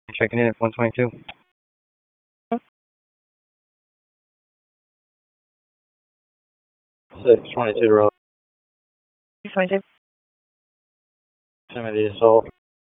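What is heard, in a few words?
Raleigh Police Southwest District Dispatch; wake; p25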